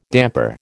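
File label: "damper", American English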